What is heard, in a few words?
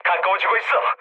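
Korean near waypoint mood medium; War Thunder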